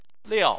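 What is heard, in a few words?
"liao", Chinese, fourth tone; Chinese Surnames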